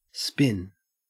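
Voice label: "spin", Australian English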